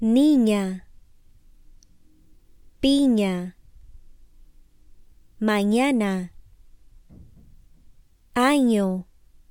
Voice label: nya word list

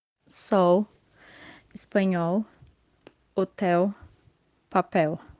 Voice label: sol espanhol hotel

